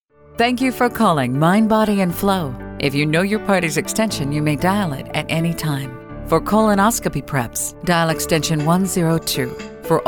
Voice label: Phone